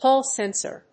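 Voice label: "Hall sensor", English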